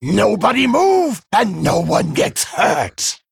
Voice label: Female Worgen Play